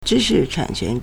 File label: "zhi1shichan3quan2", Chinese